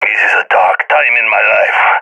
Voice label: scared; balkan epic

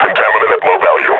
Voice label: player is hurt, grunt; Half Life Model Pack

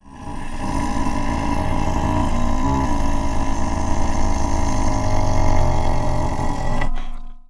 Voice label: single chant, vort; npc; Half Life 2; Episode 2

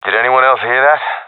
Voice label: heard sound, professional epic; vo; Source Model Packs; Counter Strike Model Pack; CS2

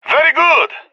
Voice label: cheer; agents; leet epic

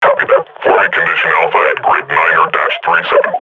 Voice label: combat idle, grunt; Half Life Model Pack

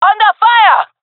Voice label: taking fire, jungle fem epic; player vo; Counter Strike Model Pack; CS:GO

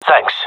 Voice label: thankful, male; vo

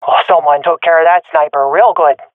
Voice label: sees sniper killed, swat fem; vo; agents; Source Model Packs; Counter Strike Model Pack; CS2

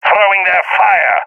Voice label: throwing fire, balkan; vo; agents

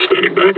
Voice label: announce attack grenade; combine charger; Half Life Alyx

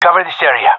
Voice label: request hold, gendarmerie male; vo; agents

